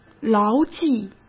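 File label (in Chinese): "牢记", Mandarin Chinese; hsk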